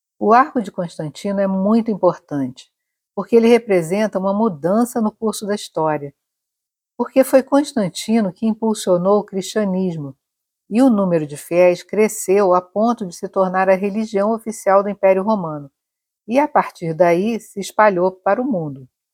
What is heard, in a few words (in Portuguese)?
Audioguia; Arco de Constantino; Introducao